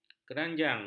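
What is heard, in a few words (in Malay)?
"keranjang", Malay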